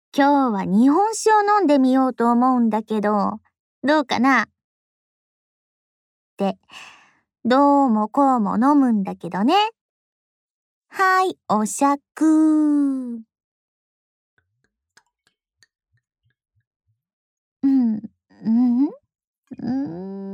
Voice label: Whisper

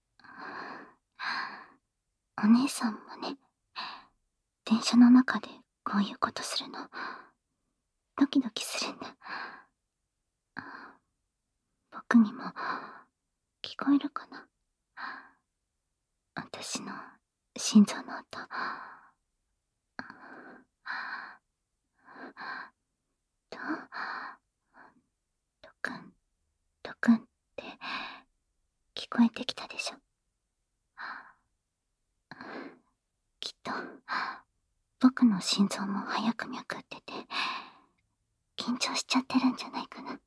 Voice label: ASMR; SE無し; 電車内で初めてのキスと耳舐め♪(キス・耳舐め・胸責め)

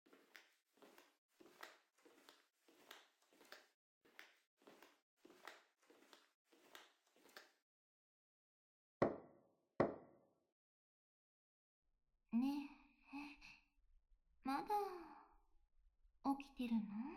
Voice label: anmin, ASMR

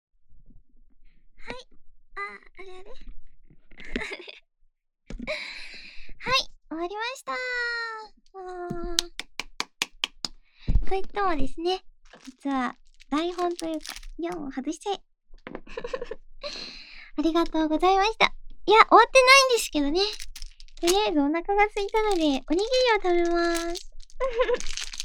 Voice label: FreeTalk, ASMR

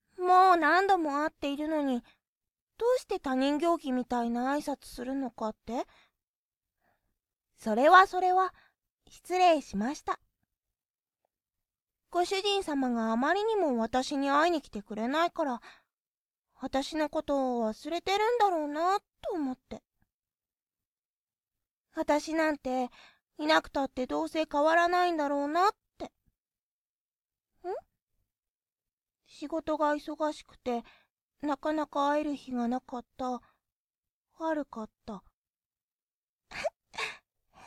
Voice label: Japanese ASMR; ご主人様と後輩君に癒しを; スピンオフ作品集

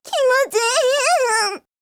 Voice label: h voice; おっぱい図鑑

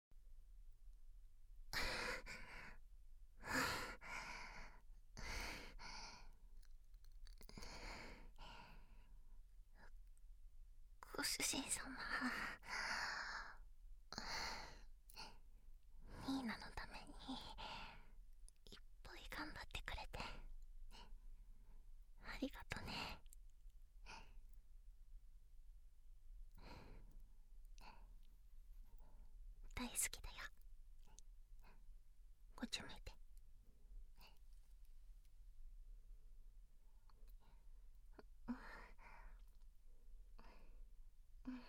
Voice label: pillowtalk

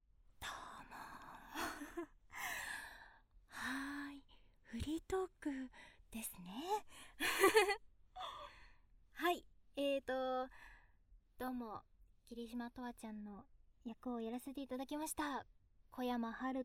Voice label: freetalk, ASMR

